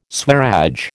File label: "Swaraj", American